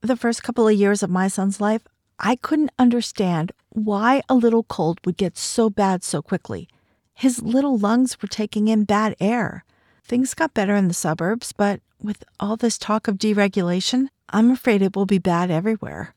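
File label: political sample, Professional Female Voice Actor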